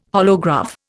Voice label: "holograph", Indian